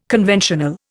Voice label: "conventional", Indian